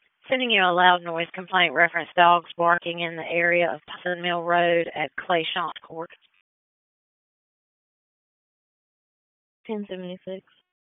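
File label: Dispatch 1, P25; WSO DISP 1; wake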